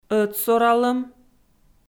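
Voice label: "ӧтсоралӧм" Komi, female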